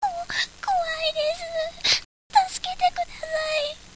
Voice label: girl